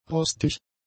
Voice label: "rostig", German; adjectives